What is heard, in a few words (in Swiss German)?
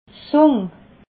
"zung", alsace